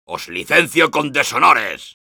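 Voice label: jeers, spanish; Soldier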